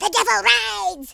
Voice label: incoming, Horror